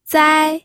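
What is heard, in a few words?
"zai1", Mandarin Chinese pinyin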